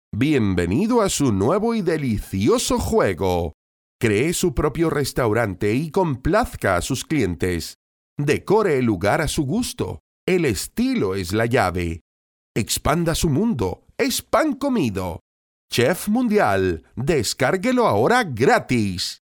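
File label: Spanish Spain male upbeat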